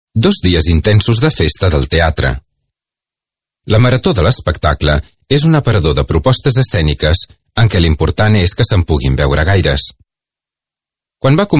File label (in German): Katalonien M